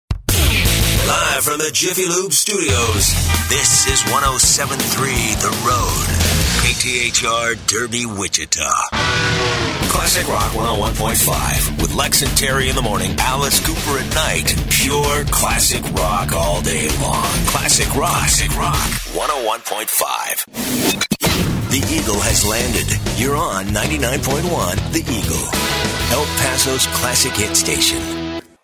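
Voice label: voice over rock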